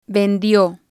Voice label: "vendio", spanish

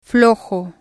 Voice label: "flojo", spanish

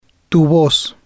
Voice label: "Tu Voz", spanish

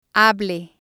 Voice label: "hable", spanish

